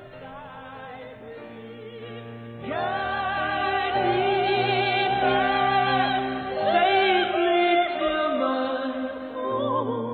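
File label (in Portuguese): Não queria te magoar, masculino, telemensagens, amizade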